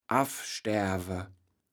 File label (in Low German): "affstaerwe", kaevels platt